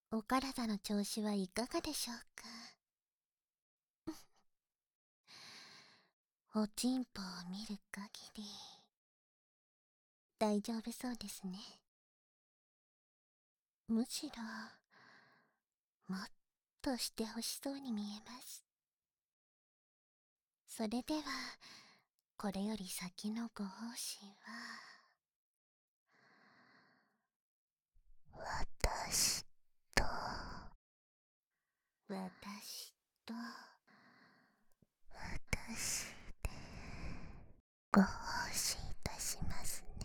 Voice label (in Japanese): 脳内カウントダウン両側耳舐め（バイノーラルマイク）, ASMR; 続・耳絶頂の導き～